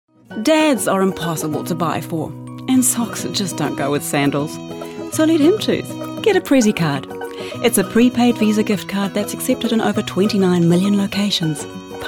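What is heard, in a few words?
New Zealand English, female, voice over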